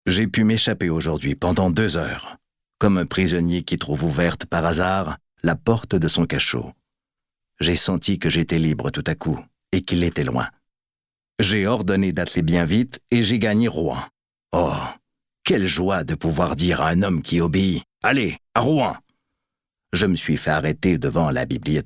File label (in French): Narration français